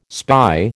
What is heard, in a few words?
"spy", American